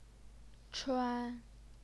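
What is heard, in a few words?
"chuan", first tone, Chinese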